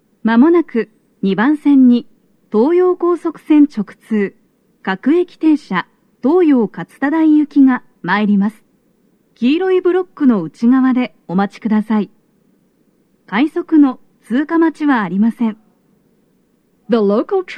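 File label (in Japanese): approach announcement, 接近放送 女声; 放送は「各駅停車 東葉勝田台行」です。快速 の通過待ちはありません; 収録者:こたけ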